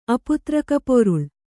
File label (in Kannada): "ಅಪುತ್ರಕಪೆÇರುಳ್", Kannada